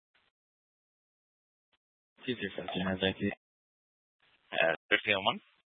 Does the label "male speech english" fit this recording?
No